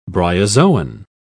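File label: "bryozoan", English, British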